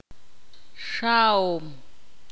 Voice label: ixil; caminar